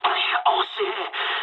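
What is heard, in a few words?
bomb failure mood med, speech japanese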